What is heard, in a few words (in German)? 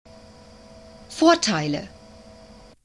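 "Vorteile", German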